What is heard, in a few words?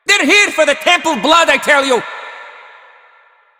Male; Warframe; Cetus Invasion; Villagers; en